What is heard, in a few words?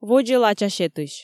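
"водзӧ лача сетысь", Komi, female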